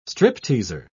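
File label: "stripteaser", British English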